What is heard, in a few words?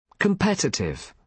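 "competitive", British English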